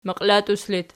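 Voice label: "maqilatus" locative, Archi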